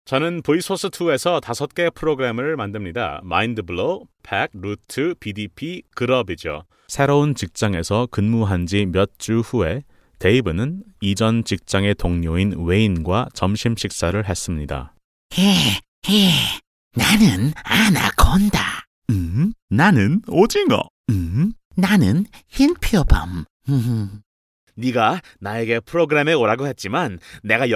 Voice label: korece seslendirme erkek sesi

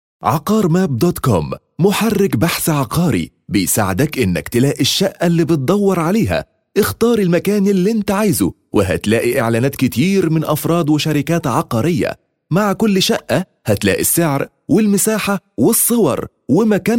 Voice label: arapca seslendirme erkek sesi

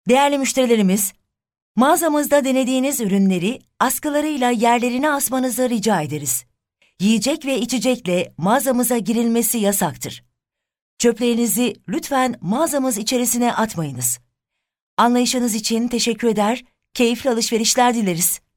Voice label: magaza ici anons seslendirme

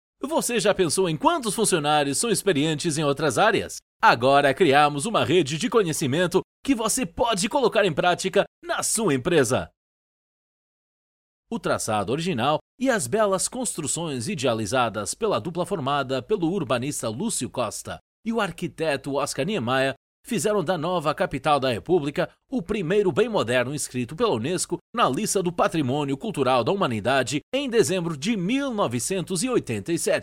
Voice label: portekizce seslendirme erkek sesi

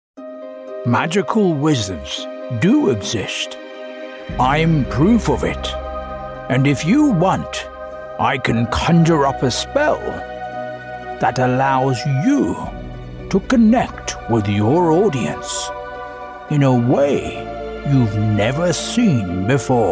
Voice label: british ingiliz aksani ingilizce erkek seslendirme

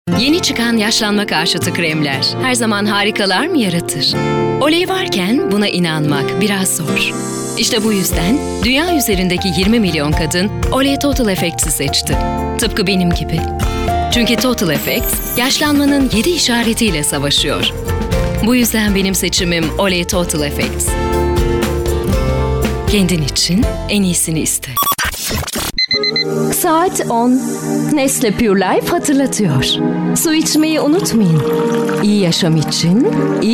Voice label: kadin seslendirme; reklam seslendirme

